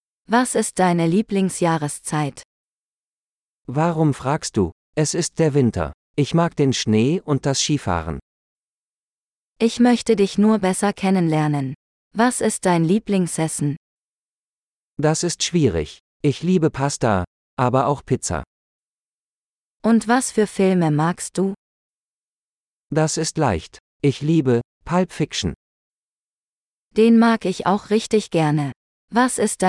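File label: Favorites - Dialogue, German; de-en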